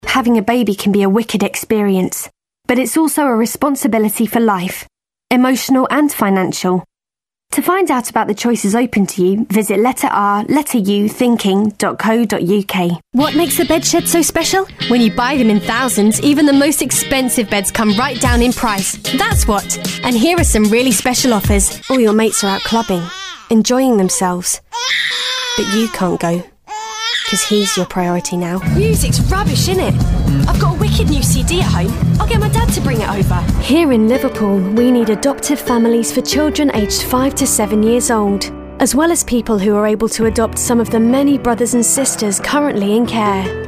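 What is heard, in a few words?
UK female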